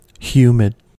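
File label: "humid", US English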